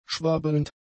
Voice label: "schwabbelnd", German; adjectives